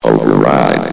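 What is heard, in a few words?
"override", male synthesized announcer voice; blizzard; hlvox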